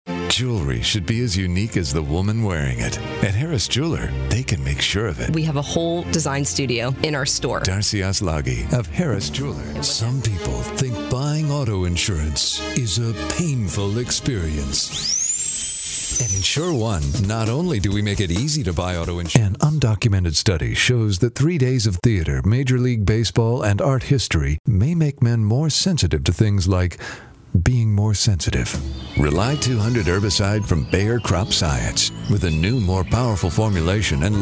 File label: commercial